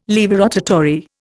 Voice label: "laevorotatory", Indian

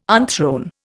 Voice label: "unthrone", Indian

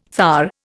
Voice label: "thar", Indian

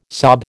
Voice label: "sob", American

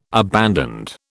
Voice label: "abandoned", British

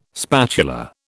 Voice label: "spatula", British

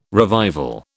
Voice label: "revival", British

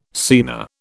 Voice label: "scena", British

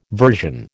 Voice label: "version", American

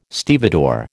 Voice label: "stevedore", American

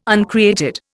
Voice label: "uncreated", Indian

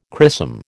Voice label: "chrisom", American

